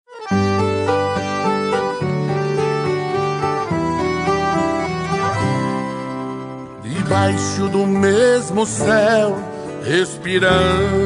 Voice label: romântica, Telemensagem Romântica – Voz Masculina

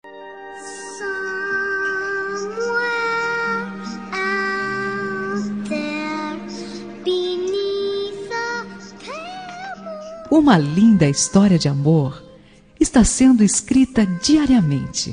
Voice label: gestante fem, Voz Feminina; Telemensagem para Gestante

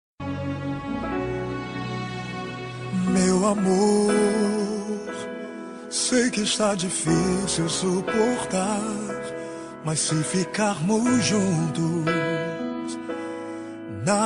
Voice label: dia dos namorados gospel, evangélica voz masculina; para namorada; dn gospel namorado masc

